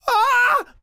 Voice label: Enemy Generic Human Spawn Disbelief